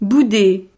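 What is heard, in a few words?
"bouder", French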